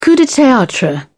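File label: "coup de theatre", British English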